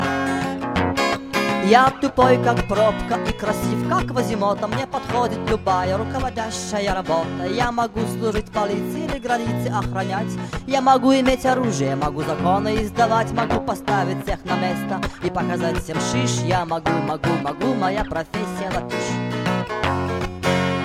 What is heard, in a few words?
latvian man